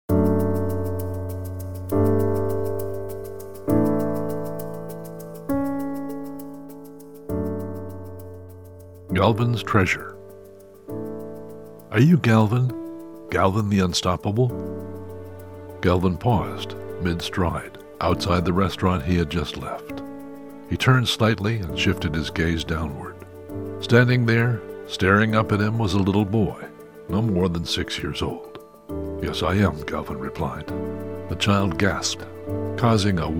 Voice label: audiobooks